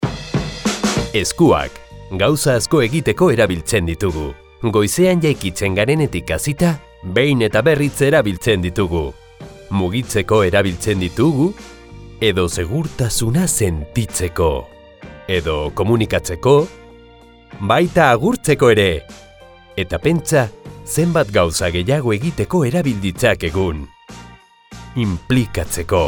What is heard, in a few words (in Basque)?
todojingles eusk